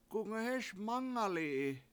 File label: where do you live, washo; k$UngahEES mAngal7i7i